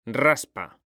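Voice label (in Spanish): "RASPA", Spanish; mekanta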